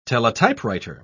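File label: "teletypewriter", British English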